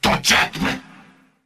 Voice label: crewman battlecry; tf; corpus